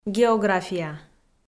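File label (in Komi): "география", Komi, female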